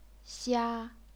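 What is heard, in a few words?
"xia", chinese pinyin, first tone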